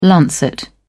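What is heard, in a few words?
"lancet", British English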